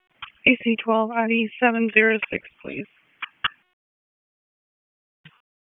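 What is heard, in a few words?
Raleigh Police Northeast District Dispatch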